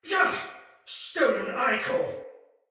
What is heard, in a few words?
Pain, Soldier Male; Sinecure Soldier Male A